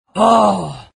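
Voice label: pain, Chinese; Invo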